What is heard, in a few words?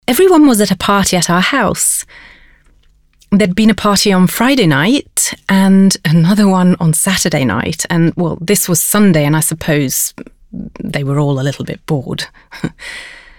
INTERNATIONAL VOICE REEL